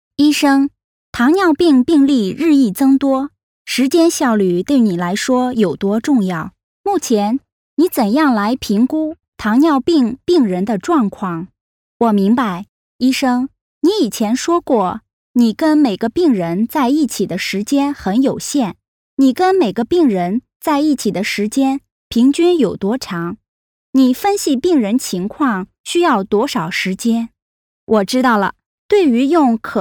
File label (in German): Nachvertonung Film Sprecherin Chinesisch